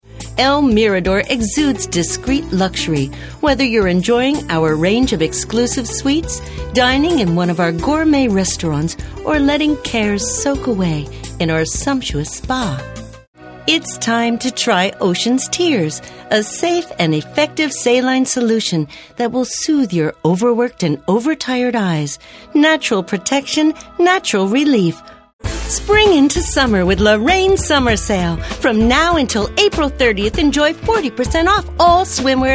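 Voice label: American, TV commercial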